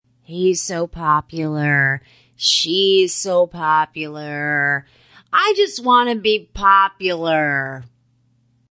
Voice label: Female Popular Saying; Talking Sound Effects